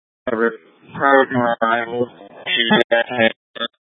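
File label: Incident Tac 3, Scanner audio recording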